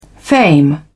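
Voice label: "fame", English, British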